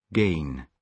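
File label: "gain", British English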